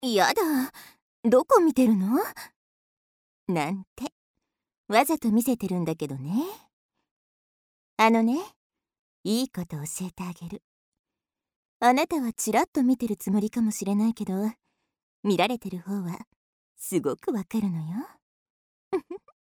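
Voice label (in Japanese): 女性 ロリ 元気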